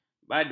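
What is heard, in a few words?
"badui", Malay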